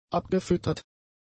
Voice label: "abgefüttert", German; adjectives